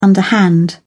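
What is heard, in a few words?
"underhand", English, British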